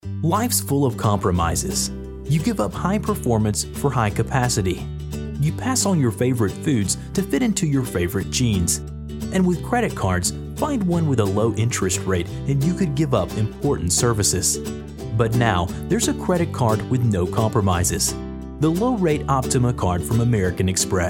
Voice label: USA